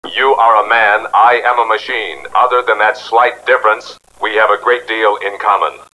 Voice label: difference, robot; robot b9